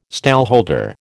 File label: "stallholder", American